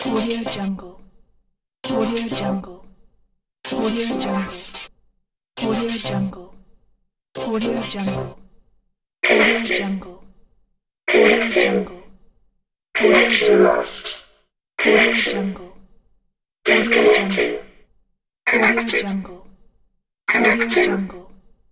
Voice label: robot voice connecting disconnecting connection lost; xylote